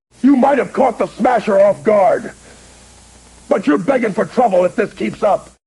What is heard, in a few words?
smasher upset; speech